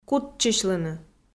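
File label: "кутчысьлыны" Komi, female; kpv audio dict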